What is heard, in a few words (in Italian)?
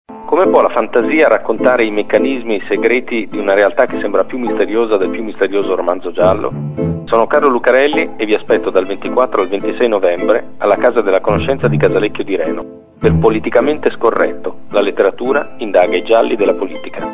spot radio